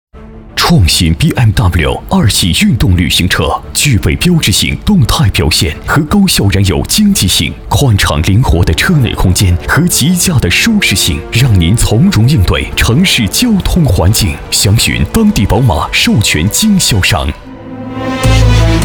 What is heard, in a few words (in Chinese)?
男国 广告 汽车 浑厚; 宝马